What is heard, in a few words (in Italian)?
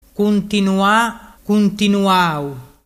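"cuntinuanuau", Vernazzese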